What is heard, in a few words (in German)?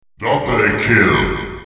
multikill, german; Announcer